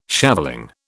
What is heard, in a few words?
"shaveling", British